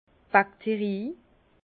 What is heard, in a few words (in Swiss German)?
"bakterie", Bas Rhin; vin; bactéries